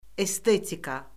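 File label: "estetika", Azerbaijani; Orfoqrafiya Lüğəti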